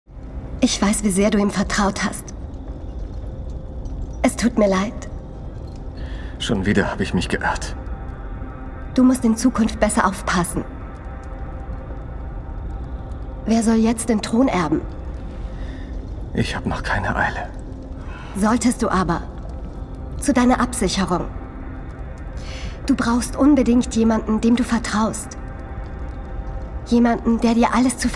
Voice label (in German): Synchron